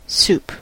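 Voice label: "soup", English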